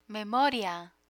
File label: "memoria", Spanish; technology; learn spanish